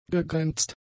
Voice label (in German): "gegrenzt", german; adjectives